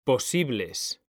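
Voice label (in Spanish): "POSIBLES", Spanish; mekanta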